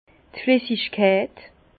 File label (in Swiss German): "flissigkeit", Bas Rhin; fluidité; vin